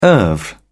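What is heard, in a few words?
"oeuvre", English, British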